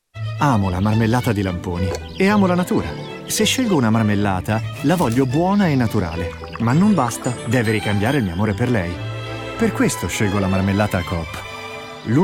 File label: male Italian tv comercial; ideasonora